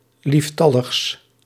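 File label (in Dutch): "lieftalligs", Dutch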